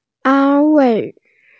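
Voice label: "an1wei4", female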